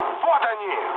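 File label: Russian enemy detected mood med, speech; War Thunder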